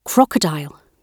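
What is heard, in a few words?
"crocodile", British English; slovicka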